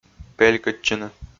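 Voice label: "пелькӧдчыны", Komi, male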